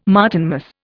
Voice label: "Martinmas", Indian